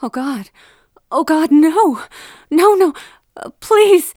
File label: Voices female,findbody; lucius ii the prophecy